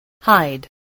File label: "hide", English, spelling